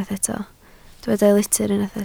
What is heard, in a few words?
"litr", Welsh